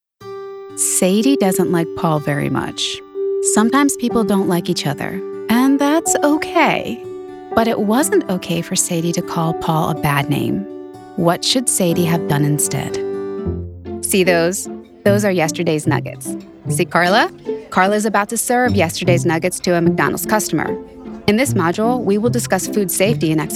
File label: E LEARNING, en-US